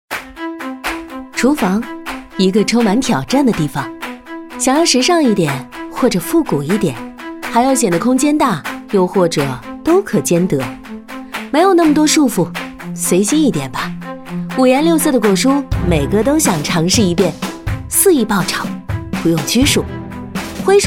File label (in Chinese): 女 广告厨房烟机家居等